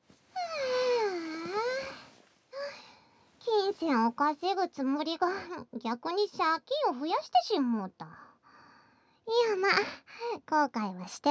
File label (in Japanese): ピロートーク; ASMR